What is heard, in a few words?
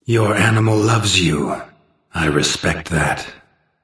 taunt directed; smite; hou yi; erlangshen